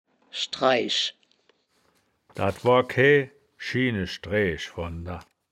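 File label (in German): "schdraeaesch", Wittlicher Wörterbuch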